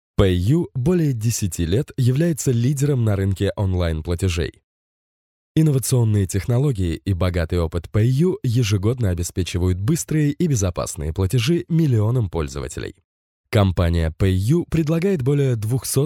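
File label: Russian, male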